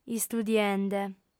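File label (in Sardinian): "istudiende", Sardinian, female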